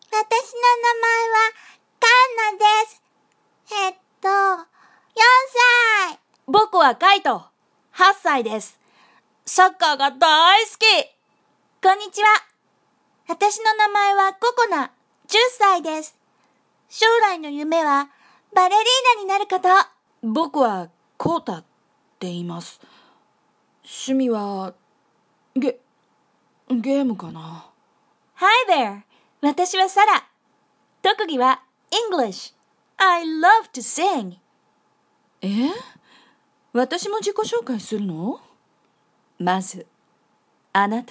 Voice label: Characters Young to Old